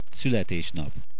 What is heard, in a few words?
Birthday, hungarian